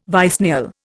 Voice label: "vicennial", Indian

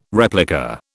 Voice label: "replica", British

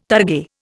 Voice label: "targe", Indian

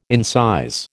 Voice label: "incise", American

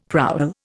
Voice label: "prowl", Indian; Dictionary

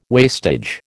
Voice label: "wastage", American

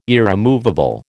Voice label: "irremovable", American

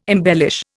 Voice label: "embellish", Indian

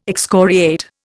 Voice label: "excoriate", Indian

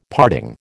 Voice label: "parting", American

